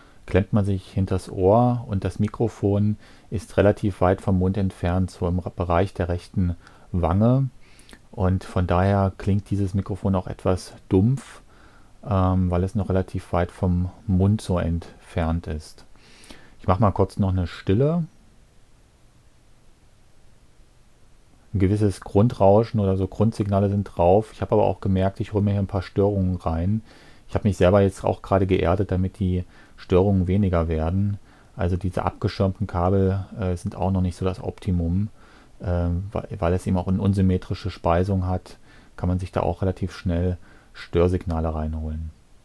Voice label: UR22 Jabra Wave; Jabra Wave Headset; Screencasting; Screencasting für Einsteiger und Anfänger